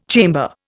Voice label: "chamber", Indian

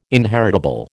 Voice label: "inheritable", American

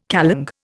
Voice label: "kalong", Indian

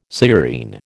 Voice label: "sciurine", American

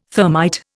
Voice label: "thermite", Indian

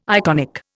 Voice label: "iconic", Indian

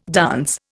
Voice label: "dance", Indian

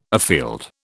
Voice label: "afield", British

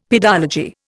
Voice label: "pedology", Indian; Dictionary

